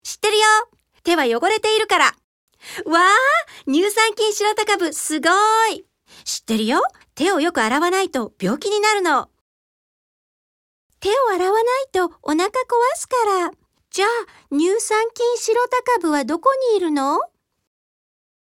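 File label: Japanese Characters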